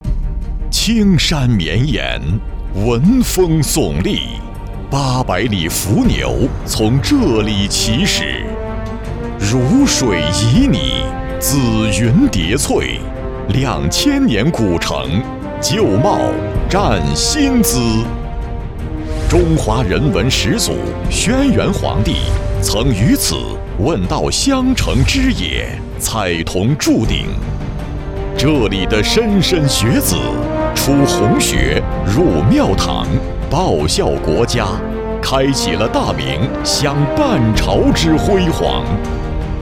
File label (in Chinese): 男 纪录片; 襄城